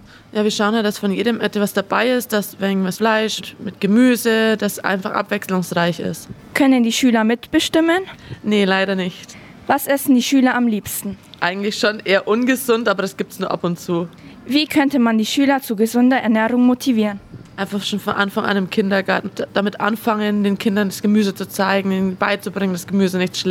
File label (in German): Interview